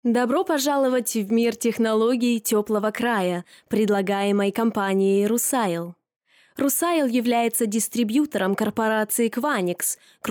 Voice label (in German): Russisch; LOFT VOICES